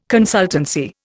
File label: "consultancy", Indian